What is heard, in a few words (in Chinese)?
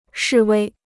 "示威", Chinese